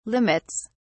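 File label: "limits", British English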